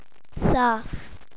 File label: clean, punjabi